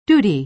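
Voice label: "duty", American English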